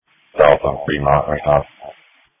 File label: Police Tactical Encrypted